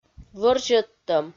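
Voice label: "вӧрзьӧдтӧм", Komi, female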